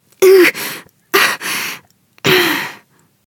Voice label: help, human woman wounded heavy; Stalker Anomaly